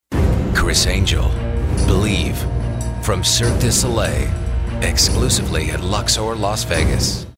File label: movie trailers